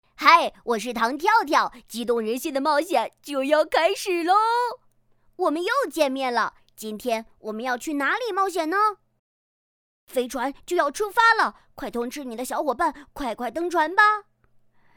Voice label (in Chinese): 调皮 男童